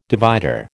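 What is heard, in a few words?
"divider", American English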